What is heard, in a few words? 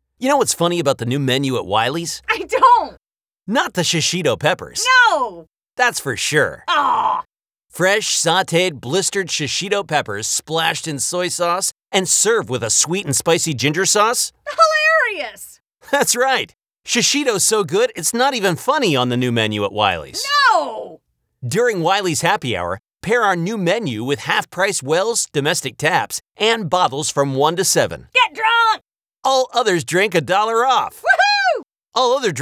Comedy